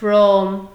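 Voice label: "from", Nigerian Pidgin, female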